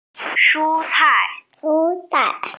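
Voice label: "shu1 cai4", Mandarin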